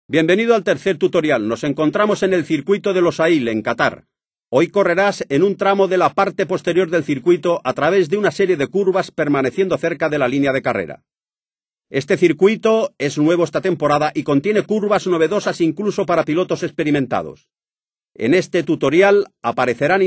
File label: cornering spanish; MotoGP 3